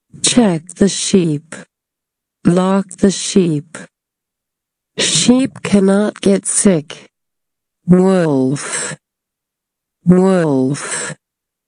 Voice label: Slow